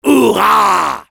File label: cheers, fr; Soldier